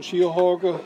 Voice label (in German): Dialekte